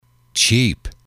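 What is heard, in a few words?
"cheap", English, male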